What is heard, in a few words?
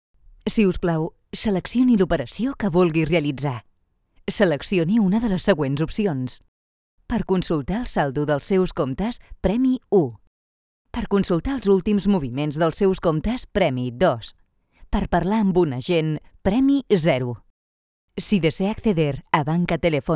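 catalan female IVR; voice demos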